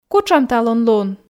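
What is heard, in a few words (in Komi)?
кутшӧм талун лун, Komi, female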